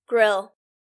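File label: "grill", English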